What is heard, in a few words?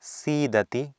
"siidati", Sanskrit